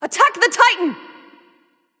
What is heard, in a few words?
VOX Attack M; smite; jingwei